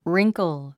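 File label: "wrinkle", 英単語 発音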